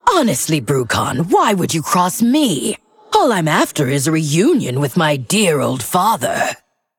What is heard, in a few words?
Female Undead